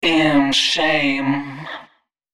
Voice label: male d# 130, short hooks, Dance Voice

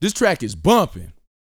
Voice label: BOAST MF; Vocal Planet; R&B Dance

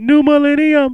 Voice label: "new millen", HipHop Vox

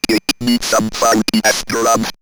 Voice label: Robot Funky Ass Drums, robot vox; X-Static Goldmine

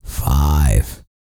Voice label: COUNT, Vocal Planet, R&B & Dance, COUNTING; ILIO

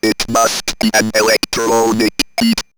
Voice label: Robot Electronic Beat, robot vox; X-Static Goldmine

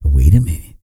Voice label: E SPEECH, Vocal Planet R&B Dance

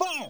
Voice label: "Four", Yamaha DD-7

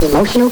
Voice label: VOX EMOTIONAL; NES DPCM; MIDINES

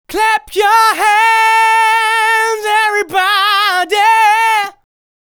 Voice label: "Clap Your Hands Everybody", vocal phrases; Ultimate Vocals

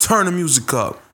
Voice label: Vocals

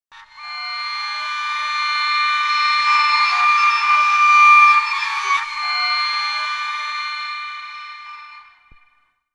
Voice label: Vocal Transformation; Bizarre Planet

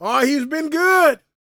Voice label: PREACHIN, Gospel, PREACHER

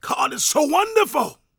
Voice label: PREACHIN, Gospel PREACHER

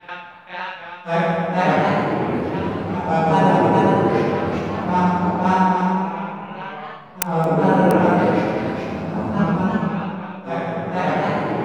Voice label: NUTTER, WEIRD VOICES; Zero G; Malice In Wonderland

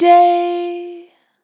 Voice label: "Long Day", Vocal Samples, Words

